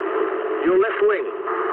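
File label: English left wing mood low; speech; War Thunder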